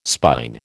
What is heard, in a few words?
"spine", American